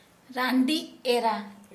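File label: widow, Santali, female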